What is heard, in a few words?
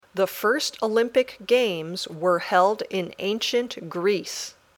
Dictation